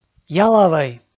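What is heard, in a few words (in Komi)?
"ялӧвӧй", male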